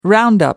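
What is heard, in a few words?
"roundup", British English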